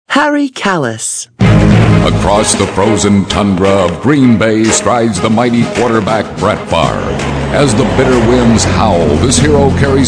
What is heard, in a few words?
Commercial